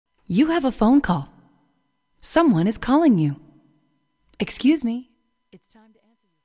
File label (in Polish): kobiecy dzwonek mowiacy